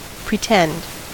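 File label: "pretend", US English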